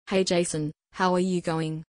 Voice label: female Intro